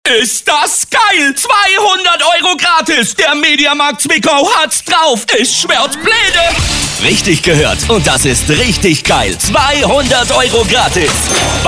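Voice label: German, male, fast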